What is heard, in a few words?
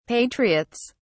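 "patriots", British English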